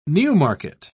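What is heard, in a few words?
"newmarket", British English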